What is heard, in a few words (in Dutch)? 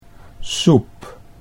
"soep", Dutch